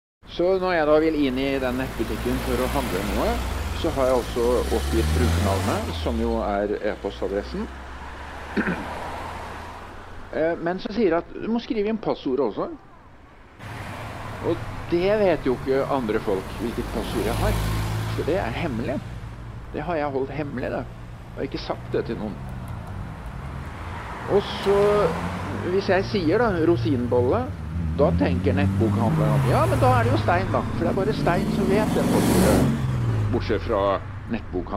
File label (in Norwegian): prater i biltrafikk